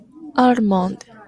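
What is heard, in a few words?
"almond", Japanese, female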